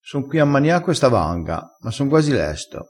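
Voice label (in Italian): "mania", dialettale crespinese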